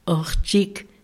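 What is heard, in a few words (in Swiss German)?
"àchtzig", Alsatian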